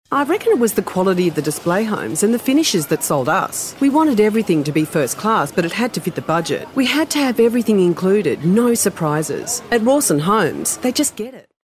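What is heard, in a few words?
Australian English, female, voice over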